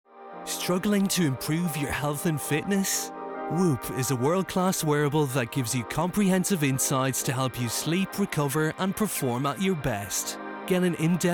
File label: male english irish radio commercial; irish southern